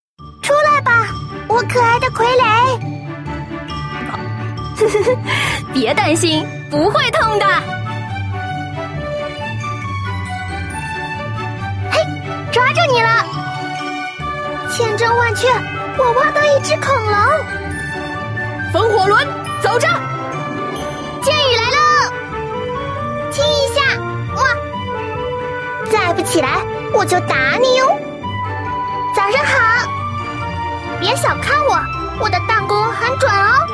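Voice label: female loli shota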